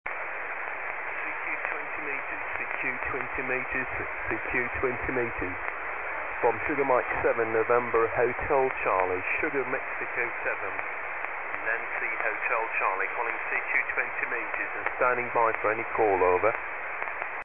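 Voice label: Ham operator calling a test CQ; 7060khz; HamSphere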